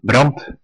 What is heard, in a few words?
fire, west flemish